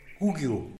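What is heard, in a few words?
"kugyu", turtledove, Tlacochahuaya Zapotec; animals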